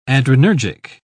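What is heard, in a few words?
"adrenergic", British English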